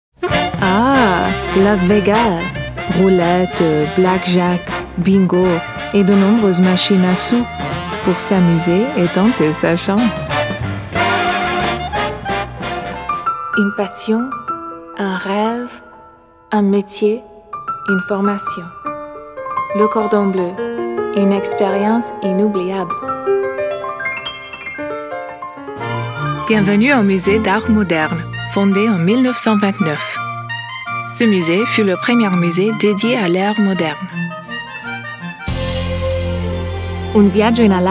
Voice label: french italian